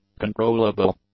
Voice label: "controllable", English; adjectives

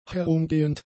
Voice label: "herumgehend", German; adjectives

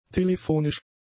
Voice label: "telefonisch", German; adjectives

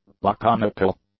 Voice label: "laconical", english

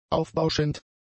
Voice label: "aufbauschend", German; adjectives

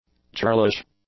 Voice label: "churlish", English; adjectives

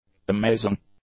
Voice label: "amazing", English; adjectives

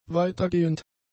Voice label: "weitergehend", German; adjectives

